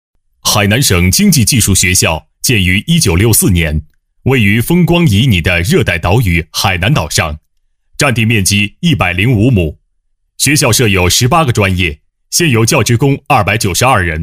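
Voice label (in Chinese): 国语 男声 专题 大气浑厚磁性 沉稳; 海南技术学院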